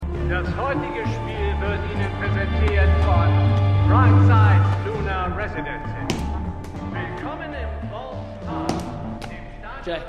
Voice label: Stadionsprecher; HELLO TOMORROW!

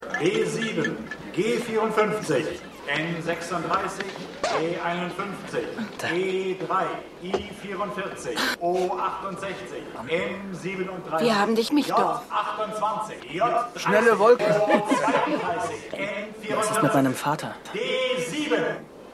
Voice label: Bingo Ansager; AusgerechnetAlaska

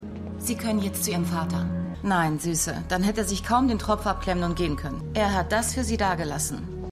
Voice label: Krankenschwester, Stimmproben; LO SVU

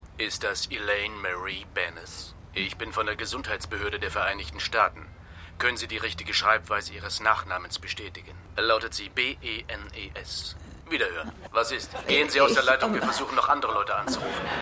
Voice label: Telefonstimme, Stimmproben; Seinfeld

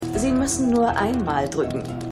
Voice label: Frau In Aufzug, Stimmproben; The Flight Attendant

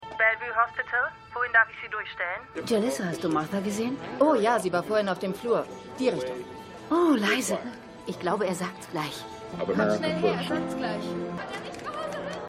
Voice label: Telefonstimme Krankenschwester; Jalissa; Gaslit

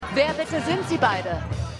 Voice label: Frau; Santa Clause

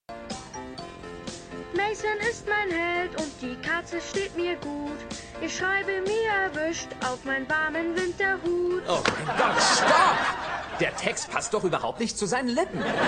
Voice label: Slowakischer Junge; The Great Indoors

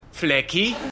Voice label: Junge; Keine Gnade Fuer Dad

